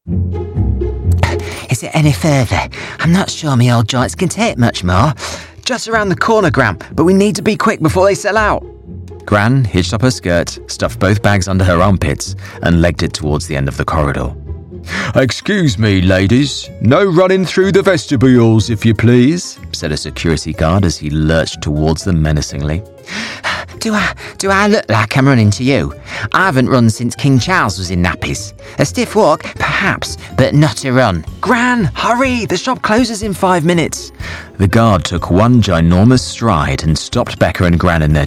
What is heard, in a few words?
Audiobook Kids; voice-over